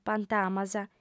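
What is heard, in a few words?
"pantamas", Sardinian, female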